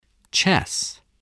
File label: "chess", English, voice